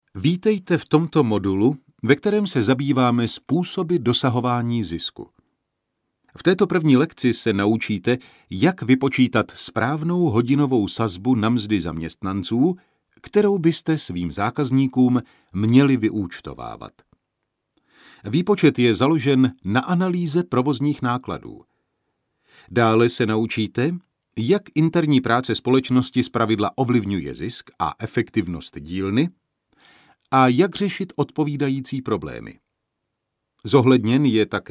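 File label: Czech e-learning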